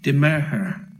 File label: wednesday, Cornish